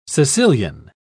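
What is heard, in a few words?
"caecilian", British English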